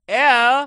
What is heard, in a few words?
Construction Lady Neg; Food Truck Game